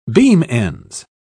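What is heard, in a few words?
"beam-ends", English, British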